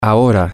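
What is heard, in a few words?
now, Spanish, male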